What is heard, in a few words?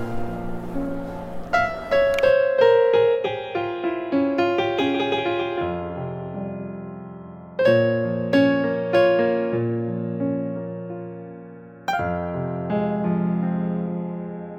l'instant poésie arabic language